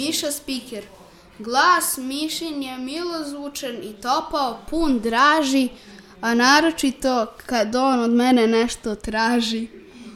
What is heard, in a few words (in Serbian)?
recitacije; Gvido Tartalja; pisci i pesnici; radio novak